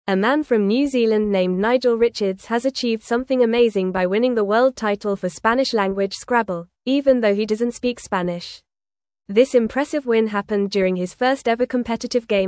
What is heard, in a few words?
English Newsroom Upper Intermediate FAST Reading; Nigel Richards Wins Spanish Scrabble Title Without